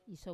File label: "iso", wamesa; I throw